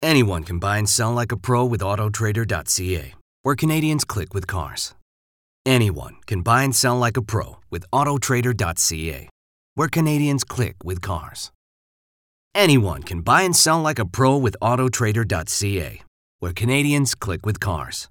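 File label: Australian English Commercial; GoLocalise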